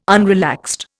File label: "unrelaxed", Indian